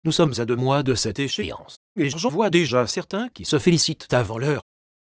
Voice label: synth